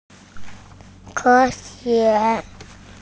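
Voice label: "science", Mandarin Chinese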